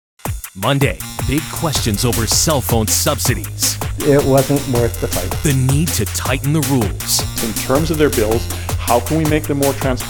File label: Habillage Vorschauvideos; NATIVE PROFISPRECHER